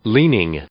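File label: "leaning", English, male; noun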